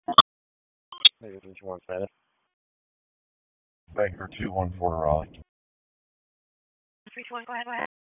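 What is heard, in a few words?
Police Northeast District Disp, radio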